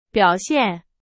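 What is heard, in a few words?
Chinese; hsk3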